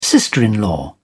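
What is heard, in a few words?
"sister in law", British English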